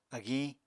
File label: "agiñ", Apatani